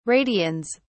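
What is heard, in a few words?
"radians", British English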